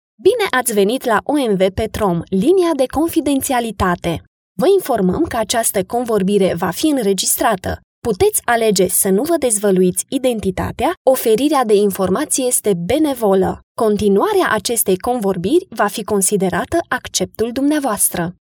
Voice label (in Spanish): locutora rumana romanian voiceover